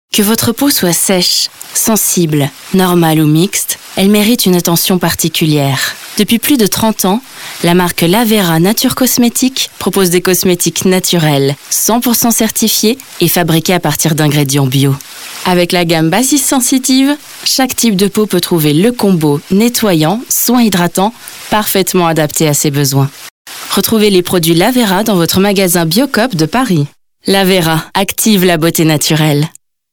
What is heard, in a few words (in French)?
French; Gold-Mobile-Creativity-Awards